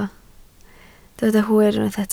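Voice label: "hwyr" Welsh, spoken word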